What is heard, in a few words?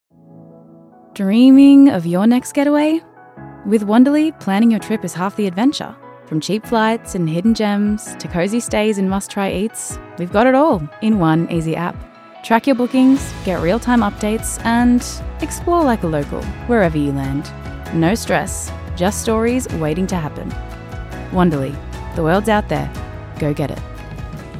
Commercials